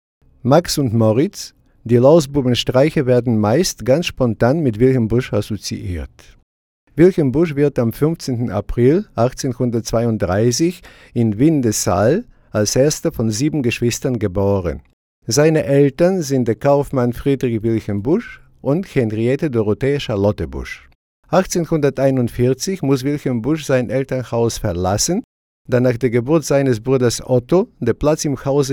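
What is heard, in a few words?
german male narration; voice demos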